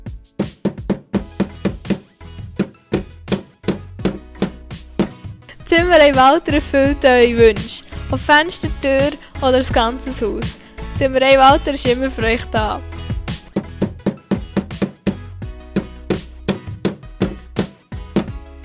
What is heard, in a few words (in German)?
Werbejingle Zimmerei; Walther; Bolligen